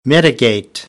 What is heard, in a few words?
"mitigate", American; ə - ɪ